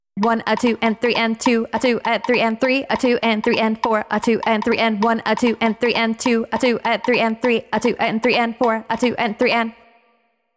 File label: Counting 3 against 2 spoken